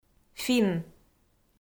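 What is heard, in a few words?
"финн", Komi, female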